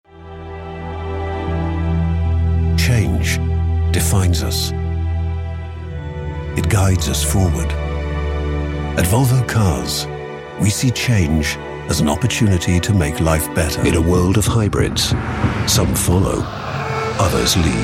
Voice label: commercial